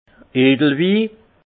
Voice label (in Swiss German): "edelwi", alsace; vin; vin noble